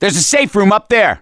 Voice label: misc directional, voice; gambler